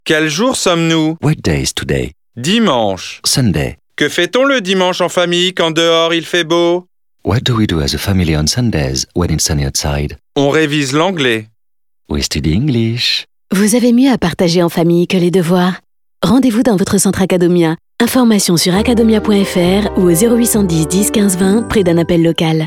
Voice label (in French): voix anglaise posé ironique; Acadomia